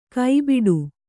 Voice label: "ಕಬಾಡ", Kannada